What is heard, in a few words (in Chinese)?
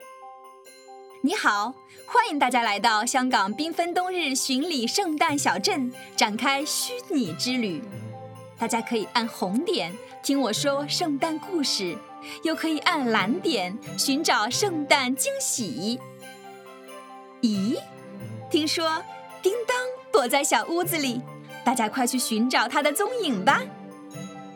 普通話女聲; HKTB